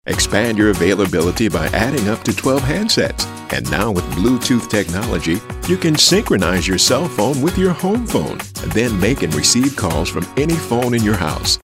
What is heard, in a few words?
informative